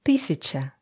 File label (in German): russische zahl 20; Zahlen auf Russisch